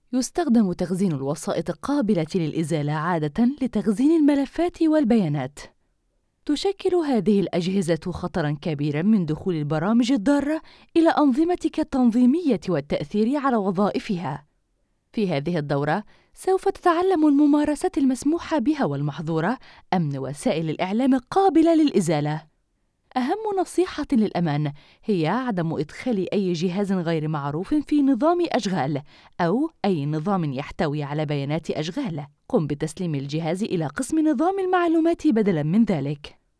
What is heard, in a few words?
arabic e-learning